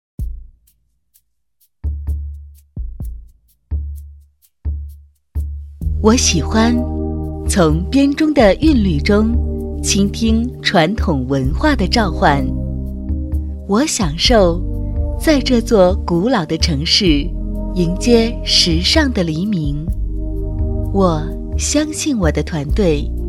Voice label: 女, 婚庆介绍温馨, 婚礼梦想, 类似化妆品广告

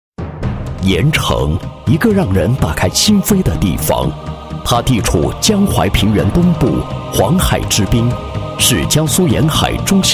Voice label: 男 公司企业 宣传介绍; 交通银行分行

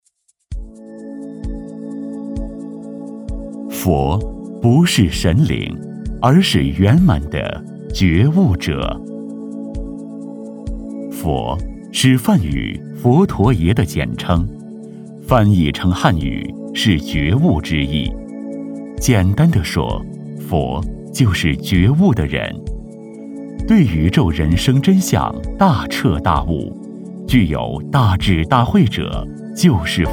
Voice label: 男 解说 大乘佛法 纪录片风格

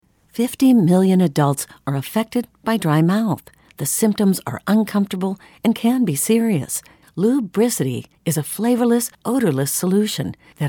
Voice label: 外籍英女

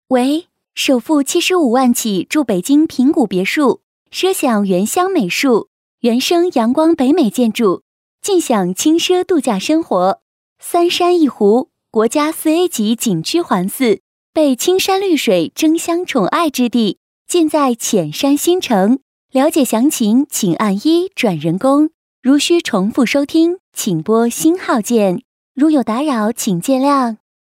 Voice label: 女 群呼, 国语女声配音; 平谷别墅

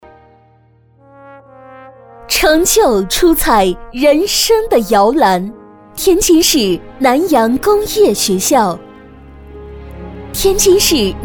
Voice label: 女 专题, 国语女声配音; 成就出彩人生的摇篮

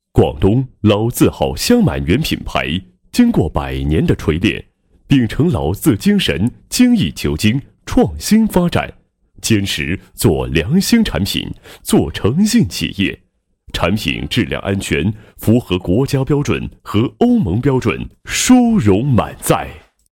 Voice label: 男, 品牌宣传; 广东老字号香满源宣传配音

